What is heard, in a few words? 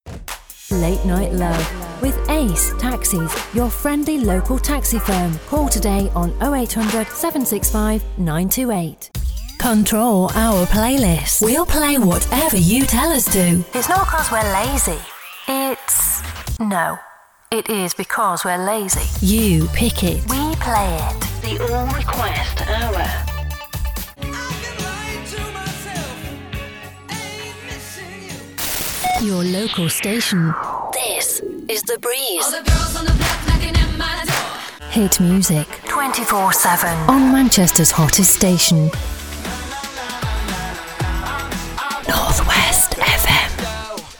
Radio Sweeper